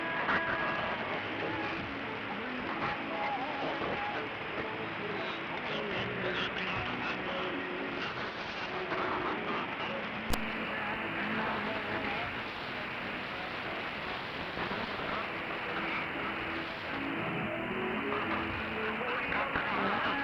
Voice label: 11885khz AM; PBS Xinjiang